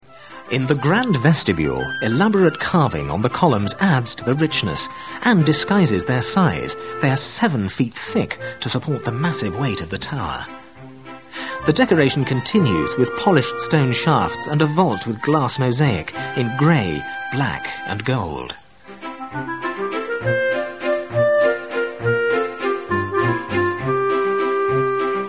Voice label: english british documentaries; Royaume-Uni

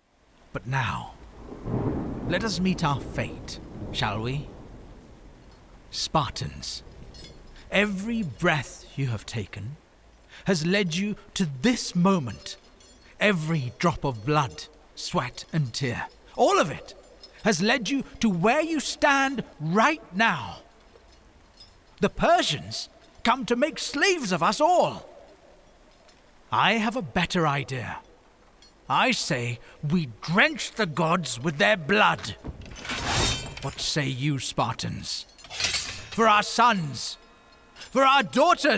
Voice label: english british video games

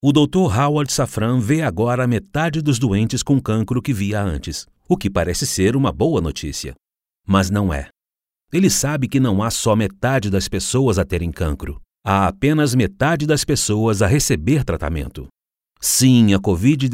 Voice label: portuguese brazilian narration

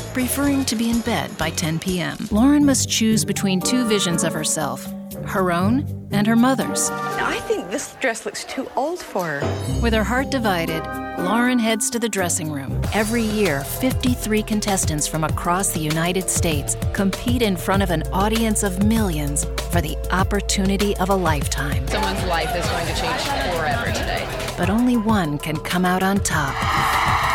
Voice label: english american others

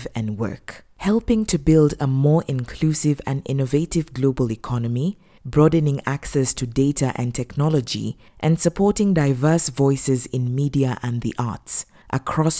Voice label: English African corporate videos

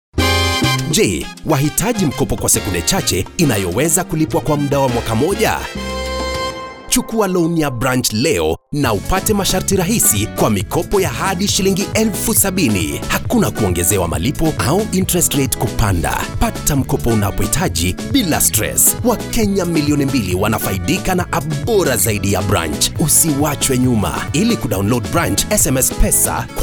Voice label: swahili commercial; African Voice Over Artist from Kenya; Swahili English (African)

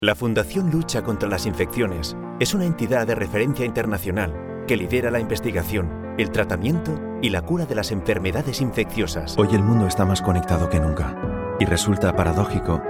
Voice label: spanish corporate videos; Automotive

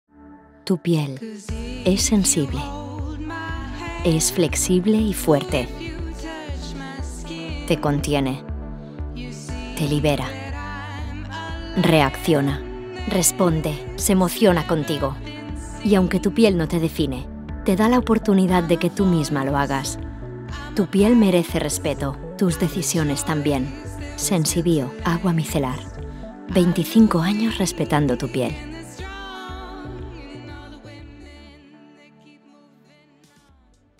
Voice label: spanish commercial; Catalan Espagnol; Espagne; actrice espagnole

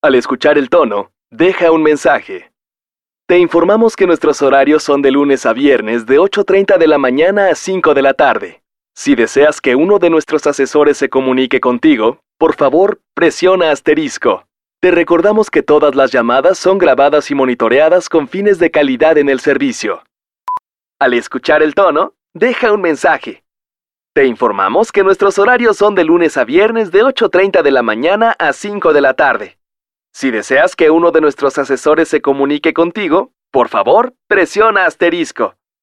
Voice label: Spanish Mexican IVR

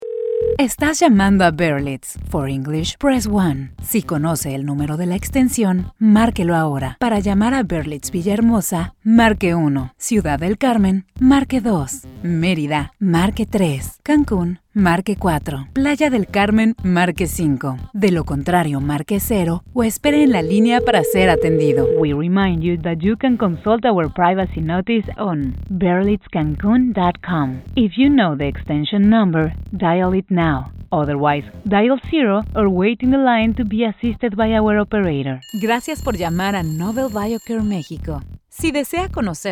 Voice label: spanish mexican ivr

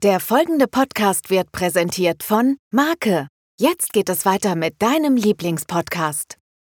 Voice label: german podcasts, german voice actors; professional German voiceover artist and voice actor

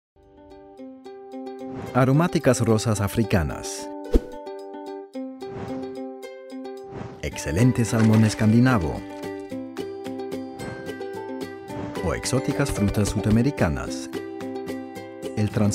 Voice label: spanish latin american corporate videos; professioneller zweisprachiger Sprecher

